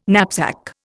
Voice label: "knapsack", Indian

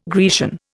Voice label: "Grecian", Indian

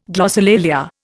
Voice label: "glossolalia", Indian

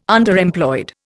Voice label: "Underemployed", Indian

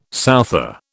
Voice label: "souther", British

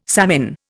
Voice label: "savin", Indian

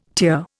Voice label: "tier", Indian

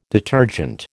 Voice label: "detergent", American

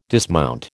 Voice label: "dismount", American

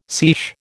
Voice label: "seiche", American

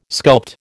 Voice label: "sculpt", American

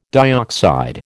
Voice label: "dioxide", American

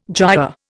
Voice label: "giaour", Indian

